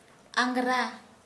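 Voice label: Santali female ember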